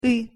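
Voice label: "ы" Russian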